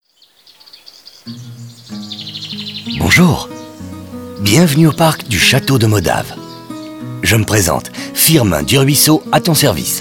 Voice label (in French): audioguide fr enfant; jardin; modave